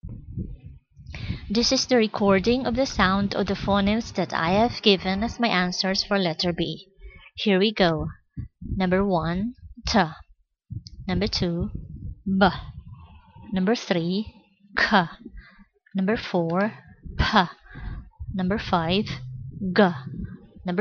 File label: sound of phonemes